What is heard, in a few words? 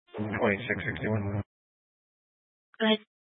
Sheriff Dispatch; Mesa County